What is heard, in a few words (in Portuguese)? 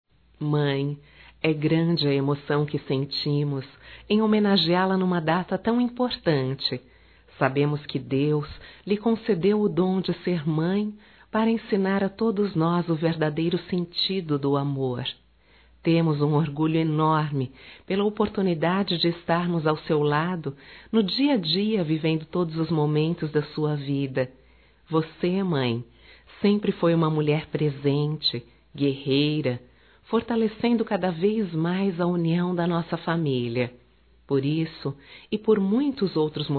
Dia das Mães nós desejamos feminino, telemensagens; Telemensagens 2017